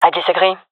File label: disagree, fem; vo; gendarmerie fem epic